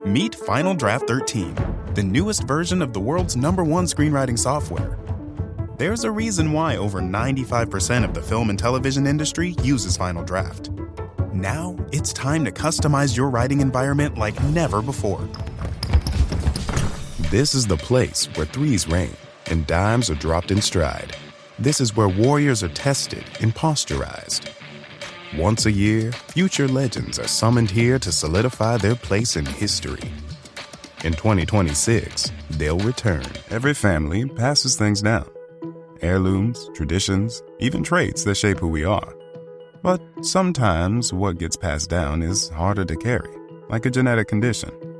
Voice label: Narration